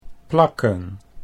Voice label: "plakken", Dutch

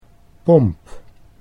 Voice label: "pomp", Dutch

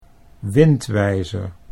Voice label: "windwijzer", Dutch

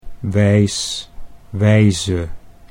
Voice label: "wijs wijze", Dutch

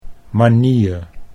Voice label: "manier", Dutch

